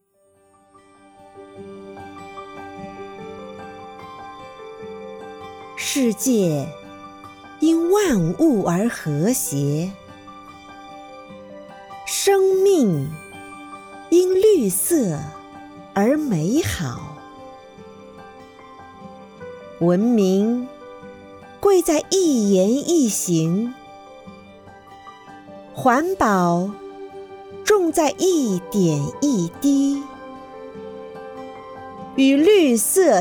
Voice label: 环保公益广告

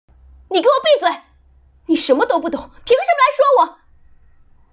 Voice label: 日常戏感练习愤怒

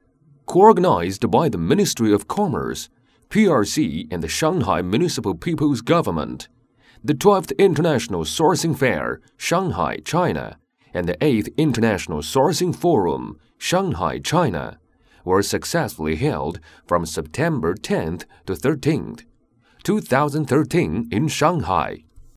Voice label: 男英

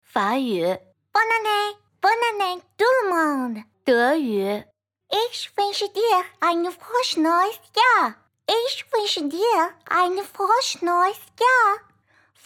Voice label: 多国语种德国法意大利俄萝莉音英文法语新年祝福

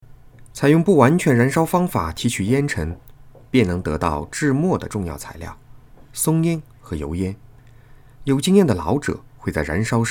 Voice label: 工艺流程文案试音

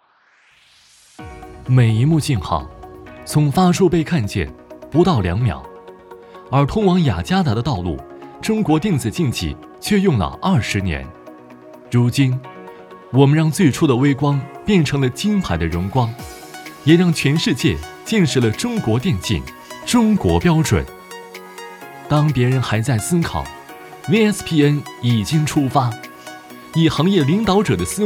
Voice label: 企宣时尚科技感

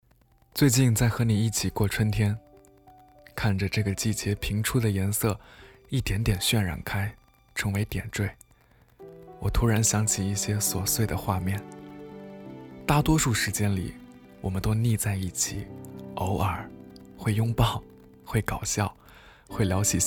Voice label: 自媒体配音 温柔 情感

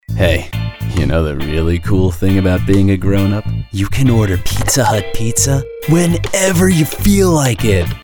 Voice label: 外籍英男 广告 故作深沉 搞怪; 必胜客

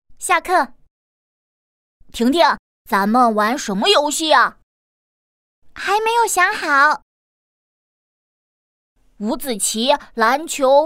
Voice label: 女角色近视科普动画

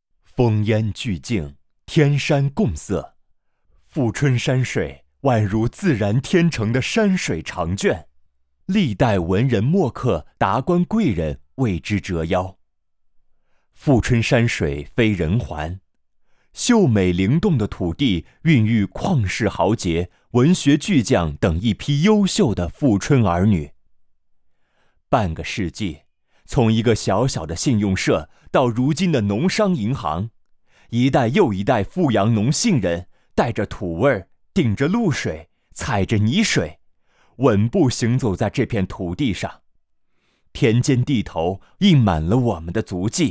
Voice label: 宣传片 大气

